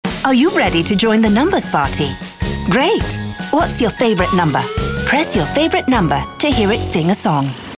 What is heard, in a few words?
Fun British accent Narration English